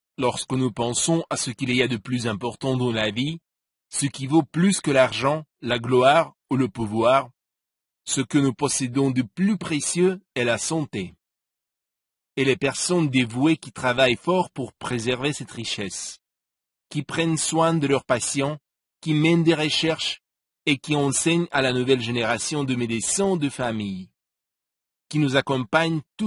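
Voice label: French, male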